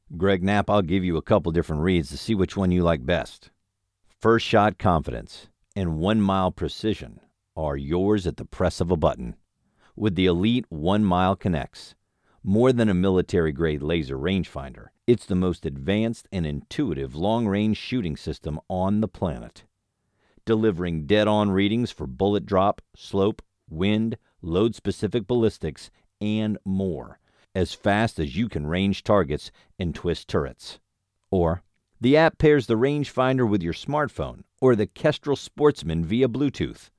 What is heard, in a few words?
Announcer; Hunt Accessory